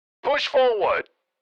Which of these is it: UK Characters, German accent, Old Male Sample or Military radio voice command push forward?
Military radio voice command push forward